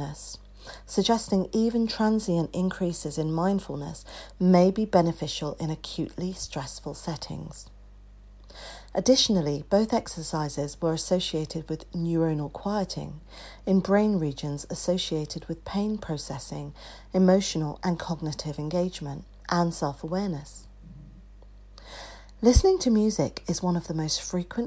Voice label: HEALING MEDITATION, GUIDED MEDITATION